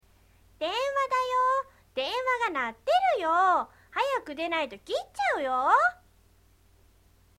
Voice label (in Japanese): shoujo